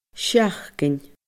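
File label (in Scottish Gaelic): "seachdain", Scottish Gaelic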